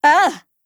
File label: AGREE, JP